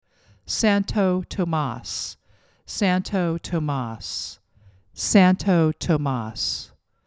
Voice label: "Santo Tomas", pronunciation